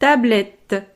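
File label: "tablette", French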